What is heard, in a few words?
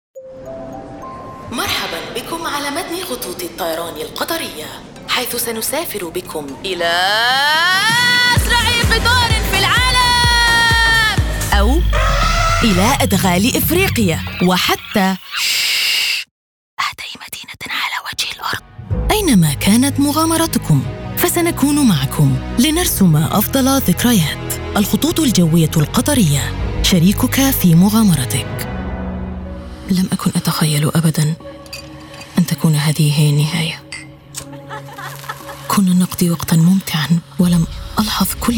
Arabic Commercial; Official Arabic Commercial Demo Reel 2024